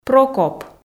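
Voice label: "прокоп", Komi, female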